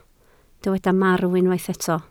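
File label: "marw" Welsh, spoken word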